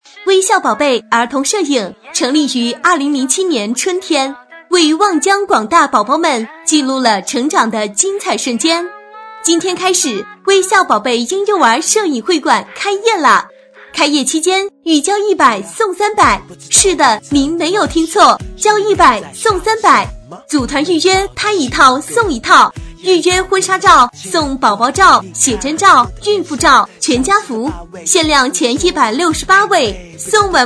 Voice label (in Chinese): 女声; 儿童婚纱摄影